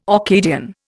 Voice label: "Orcadian", Indian